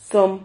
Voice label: "zom", Silabas

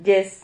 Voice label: "yes", Silabas

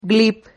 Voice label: "glip", Silabas

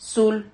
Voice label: "sul", silabas

